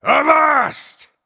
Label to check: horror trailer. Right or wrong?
Wrong